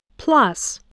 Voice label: "plus", English

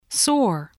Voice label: "soar", English voice

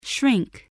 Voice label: "shrink", voice spelling

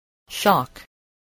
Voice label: "shock", voice spelling

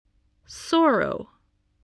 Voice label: "sorrow", English voice

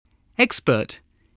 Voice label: "expert", voice

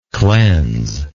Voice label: "cleansable", English

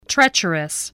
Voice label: "treacherous", voice

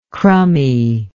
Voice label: "crummie", english

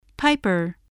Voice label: "piper", voice spelling

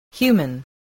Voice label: "human", voice spelling

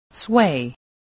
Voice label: "sway", English

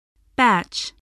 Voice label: "batch", English

